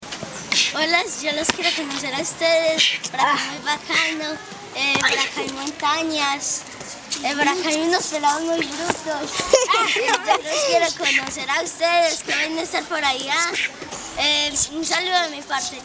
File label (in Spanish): Mensaje nino; Dto Antioquia; Mpio San Carlos; mi voz es tu voz; Audios de presentacion ninos y ninas de la obra musical